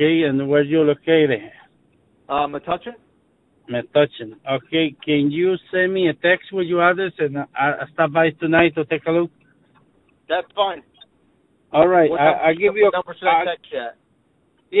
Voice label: call tree service; 1sparkdigital